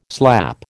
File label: "slap", American